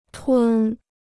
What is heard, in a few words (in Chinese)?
"吞", Chinese